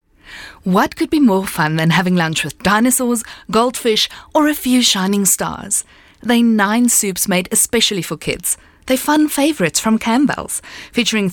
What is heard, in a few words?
English Bright